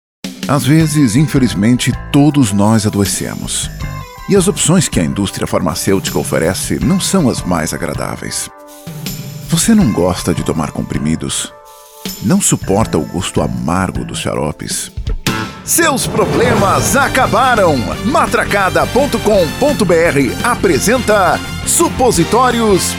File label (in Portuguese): Comercial